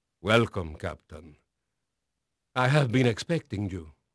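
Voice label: Spanish male, voice; Pirates Of The Caribbean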